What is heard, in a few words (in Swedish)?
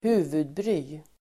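"huvudbry", Swedish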